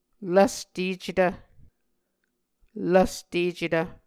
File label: "lvstecetv", Muscogee